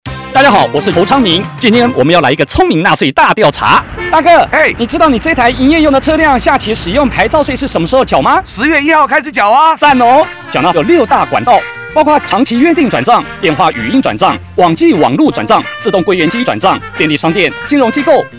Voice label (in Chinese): 營業用使用牌照稅宣導廣播 國; 102年度使用牌照稅下期開徵宣導短片國語